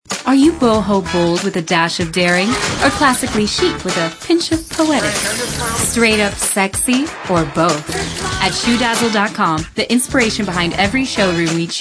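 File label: female, late 20s mid 30s, contemporary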